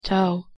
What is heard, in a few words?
"toe", en